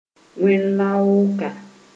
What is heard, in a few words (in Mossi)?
"wẽn-loaaka", Moore